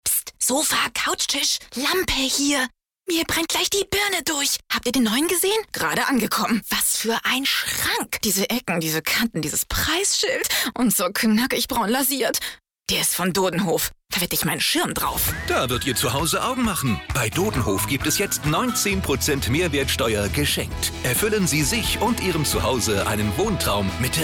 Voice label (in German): commercial rolle